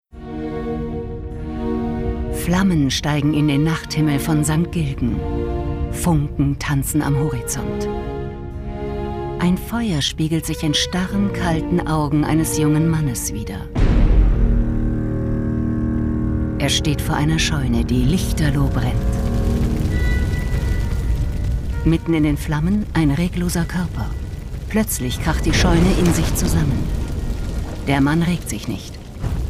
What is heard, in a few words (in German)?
Podcast